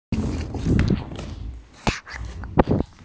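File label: "cao3mei2", Mandarin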